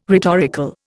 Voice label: "rhetorical", Indian